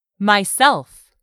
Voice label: "myself", English; 3000 words

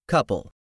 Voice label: "COUPLE", english; 3000 words

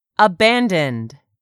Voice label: "abandoned", English; 3000 words

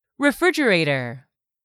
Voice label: "refrigerator", English; 3000 words

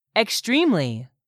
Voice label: "extremely", English; 3000 words

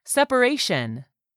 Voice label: "SEPARATION", english; 3000 words

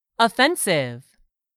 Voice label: "offensive", English; 3000 words

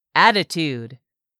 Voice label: "attitude", English; 3000 words

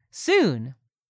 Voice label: "SOON", english; 3000 words

